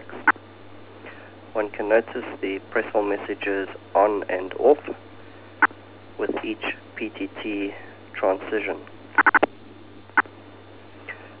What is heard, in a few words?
Voice call, Trunking, repeater